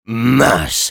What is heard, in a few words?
jeers; Sniper; fr